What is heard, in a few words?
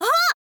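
"a", girl, Japanese; voice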